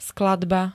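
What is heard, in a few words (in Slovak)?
"skladba", Slovak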